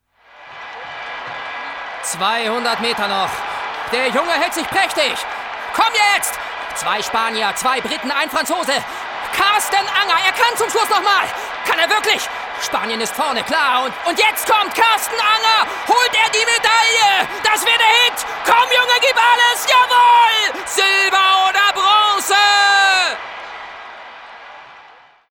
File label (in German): Sport Reporter